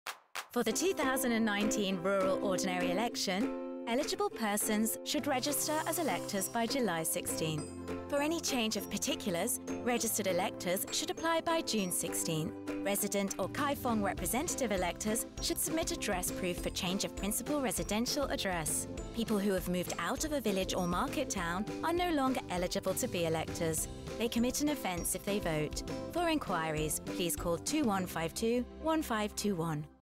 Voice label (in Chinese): 英語女聲; Rural Ordinary Election